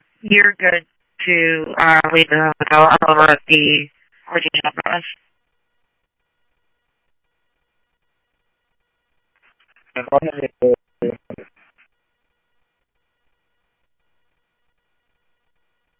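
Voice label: police radio dispatch, ScottsdalePolice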